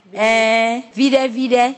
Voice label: "yes a little", Ewe; E, vide vide